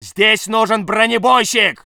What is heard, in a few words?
voice sov infantry